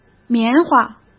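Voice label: "棉花", Mandarin Chinese; hsk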